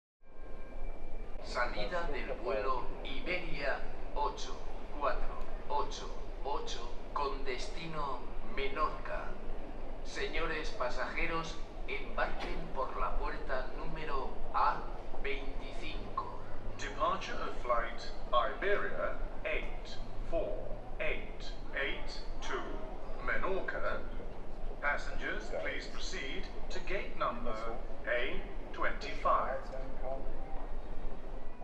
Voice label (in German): Flughafen Ansagen Mallorca